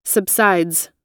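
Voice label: "subsides", American English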